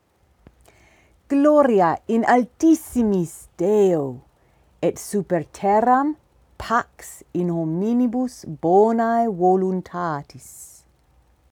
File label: Read in Classical Pronunciation; gloria